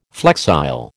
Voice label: "flexile", American

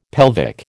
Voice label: "pelvic", American

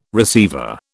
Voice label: "receiver", British

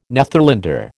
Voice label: "Netherlander", American

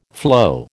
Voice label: "flow", American

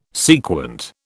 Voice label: "sequent", British

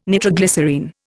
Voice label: "nitroglycerine", Indian

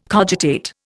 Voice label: "cogitate", Indian

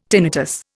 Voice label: "tinnitus", Indian

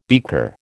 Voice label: "beaker", American

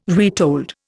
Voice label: "retold", Indian

